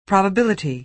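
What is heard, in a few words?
"probability", American English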